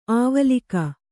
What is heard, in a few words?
"ಅವಲಕ್ಕಿ", Kannada